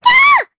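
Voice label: Vox, voices, Japanese; Lilia; kings raid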